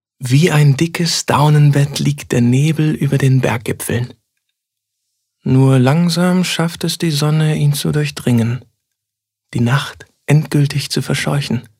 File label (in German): Schauspiel Sanft